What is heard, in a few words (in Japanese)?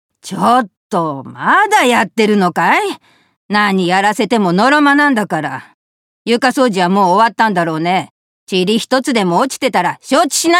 意地悪女主人